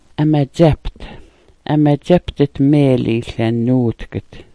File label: "amadzapt", Smalgyax